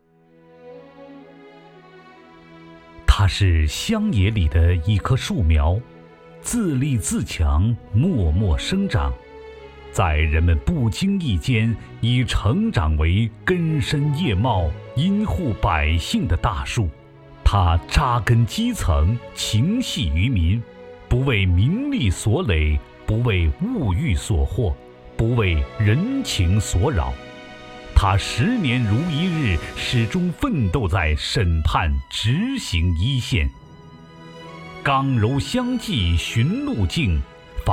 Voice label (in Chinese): 男 人物专题 法官